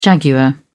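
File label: "jaguar", British English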